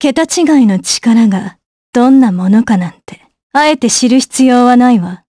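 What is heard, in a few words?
vox Japanese; Isolet; kingsraid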